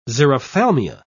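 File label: "xerophthalmia", British English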